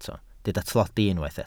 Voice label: "tlodi", Welsh

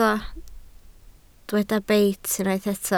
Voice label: "beit" Welsh

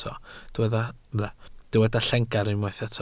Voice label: "llengar" Welsh

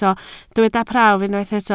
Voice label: "prawf" Welsh

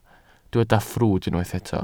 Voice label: "ffrwd" Welsh

